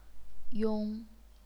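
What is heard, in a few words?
"yong", chinese pinyin, first tone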